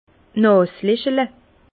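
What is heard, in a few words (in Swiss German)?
"naselechle", Alsace Bas Rhin; les narines; vin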